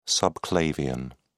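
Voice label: "subclavian", British English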